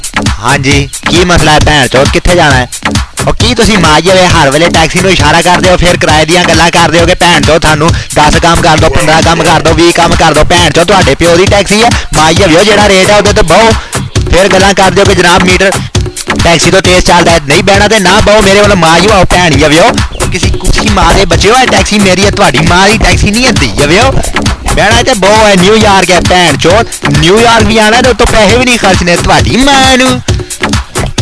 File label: taxi funny urdu